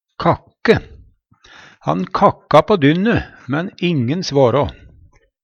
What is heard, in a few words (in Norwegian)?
kakke, Numedalsmål